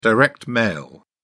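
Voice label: "direct mail", British English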